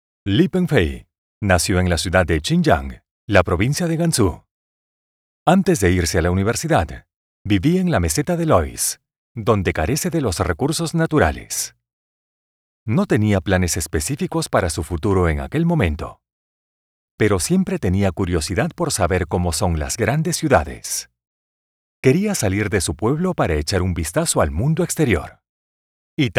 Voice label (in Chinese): 西班牙旁白